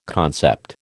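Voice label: "concept", American English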